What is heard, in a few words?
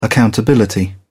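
"accountability", British English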